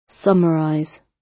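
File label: summarise, British; Language, linguistics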